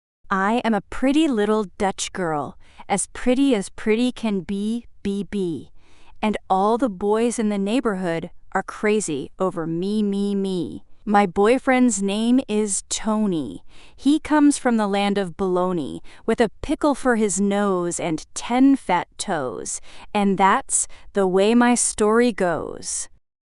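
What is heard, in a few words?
female US, classic rhymes; I am a Pretty Little Dutch Girl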